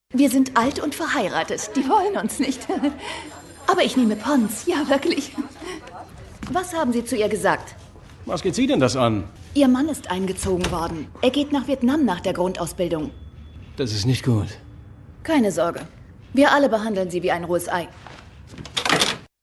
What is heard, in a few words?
film dubbing